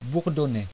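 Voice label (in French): "bourdonner" French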